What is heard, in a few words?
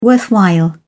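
"worthwhile", British English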